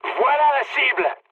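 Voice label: near waypoint, mood high, French; War Thunder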